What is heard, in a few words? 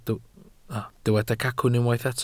"cacwn", Welsh